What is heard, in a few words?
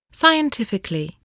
"scientifically", english spelling